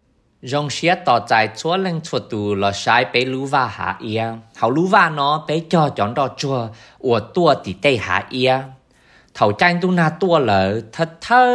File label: Spring Marshview Hmong